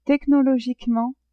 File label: "technologiquement", French; adverbs